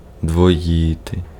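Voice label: Ukrainian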